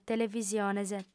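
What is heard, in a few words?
"televisiones", female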